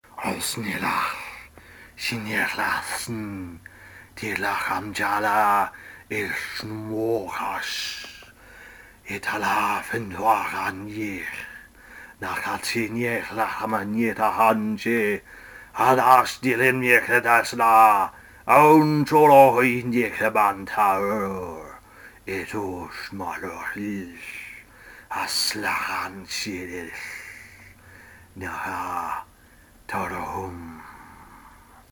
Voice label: Evil Incantation